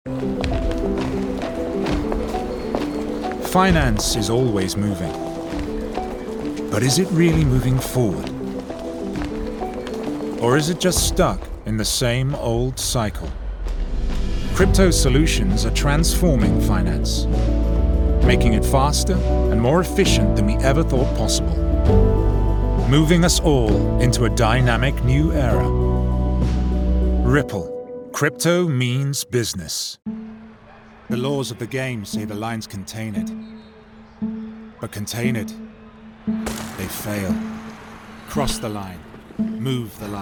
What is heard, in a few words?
Voice Over Commercial Reel